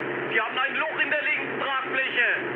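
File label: German left wing mood high, speech; War Thunder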